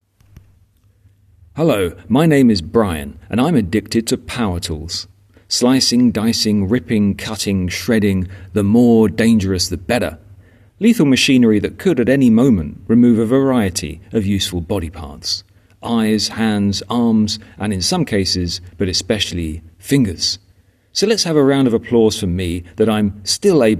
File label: voiceover